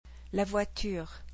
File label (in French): "la voiture", French